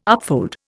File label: "upfold", Indian